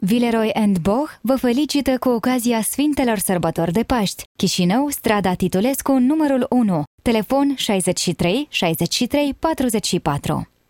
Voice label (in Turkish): Moldovyaca Kadin; moldovyaca seslendirme; kadin sesler